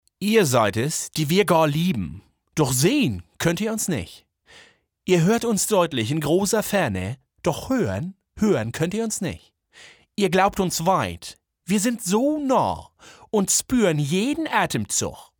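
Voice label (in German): hamburgerisch berlinerisch, Sprecher, Männer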